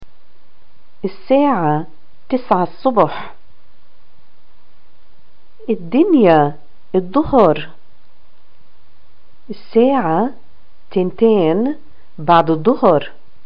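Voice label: "times", Palestinian Arabic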